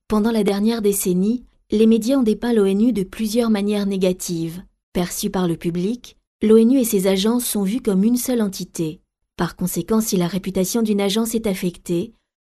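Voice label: French, female, narration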